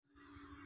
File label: Voice Note